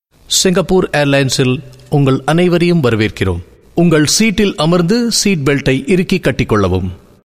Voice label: Tamil Male; India

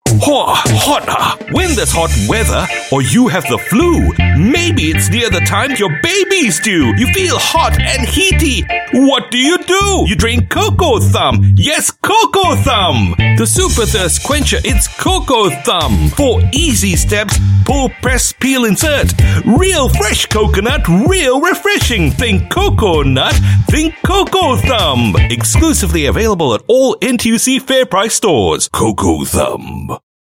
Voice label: Asian English All Voices; Cocothumb

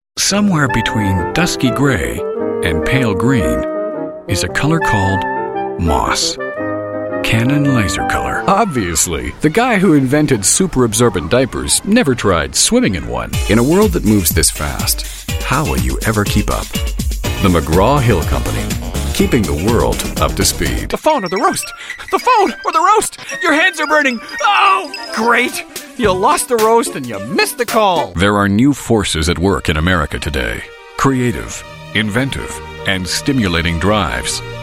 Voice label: US English English

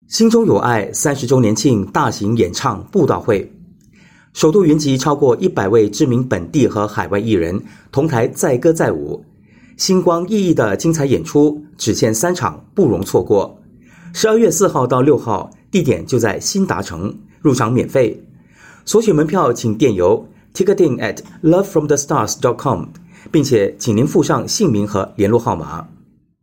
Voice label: Mandarin, Male; China; Lovestars

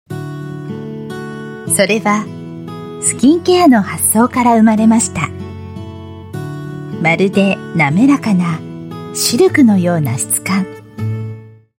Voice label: Japanese voice sample

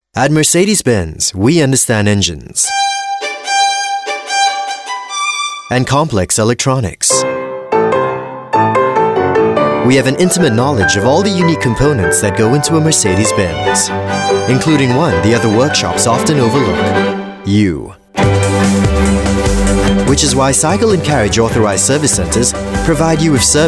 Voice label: VO Samples, English Singaporean Male

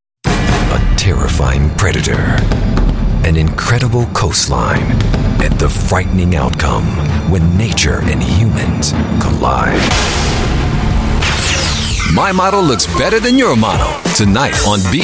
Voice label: US English Voice

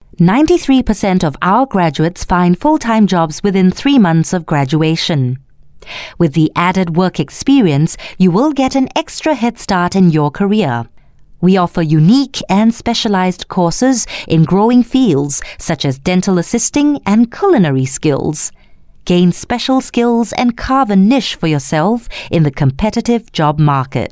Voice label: Asian English Corporate

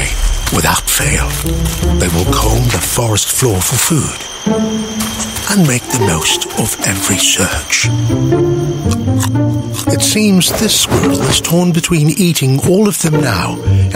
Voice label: Asian English

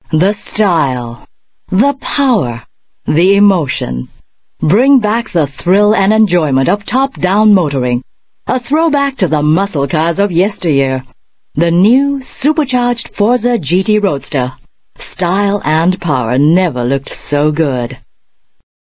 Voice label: Asian English

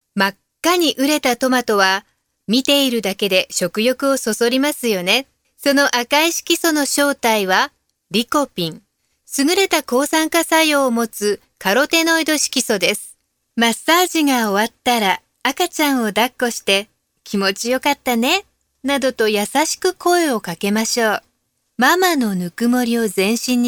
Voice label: Japanese eLearning Reads, Female

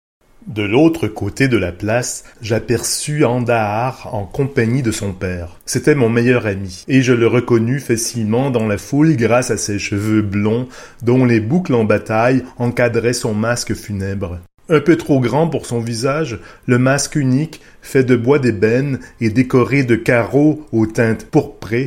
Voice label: French Audio Book

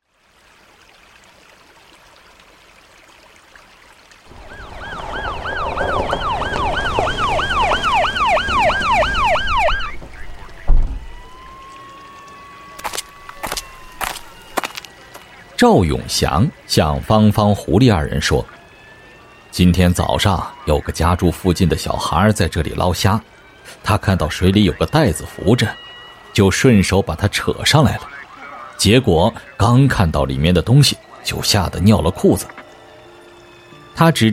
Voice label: Mandarin China Mystery Drama

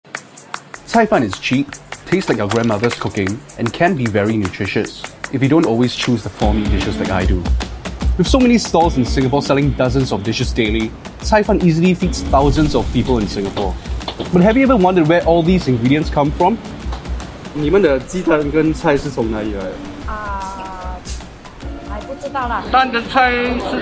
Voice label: Asian English Host; Caifan

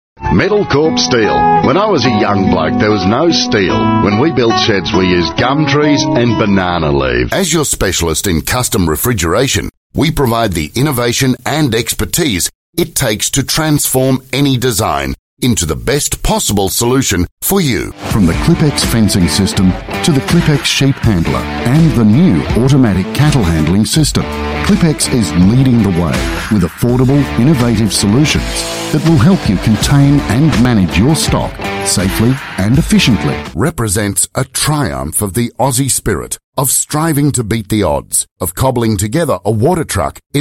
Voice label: AU English Australian Accent Voice Sample

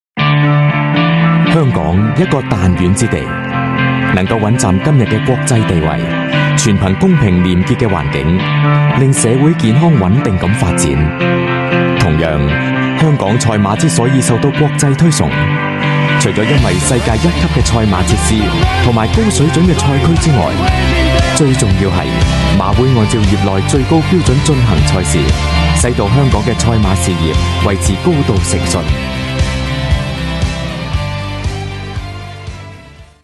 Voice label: Cantonese Male Voice Sample

